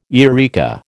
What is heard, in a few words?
"areca", American